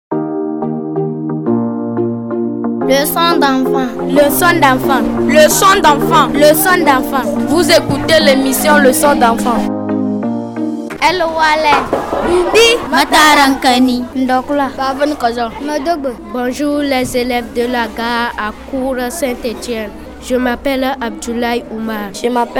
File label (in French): Message d'accueil